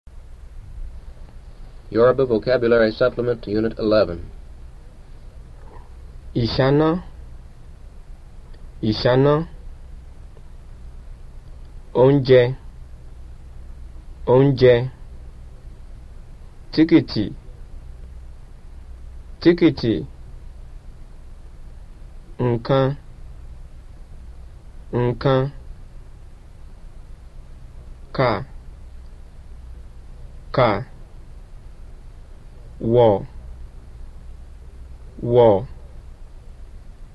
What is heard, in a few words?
Yoruba; FSI; Basic